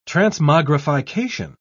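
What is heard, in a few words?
"transmogrification", British English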